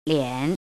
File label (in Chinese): "lian3", pinyin